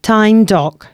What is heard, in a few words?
announcements, female